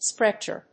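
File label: "Sprechchor", English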